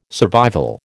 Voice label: "survival", American

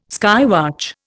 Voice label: "skywatch", Indian

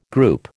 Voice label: "group", American

